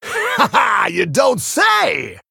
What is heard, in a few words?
Male Tauren Emote Response; Mission12 Emote Response